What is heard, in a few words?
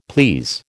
"please", American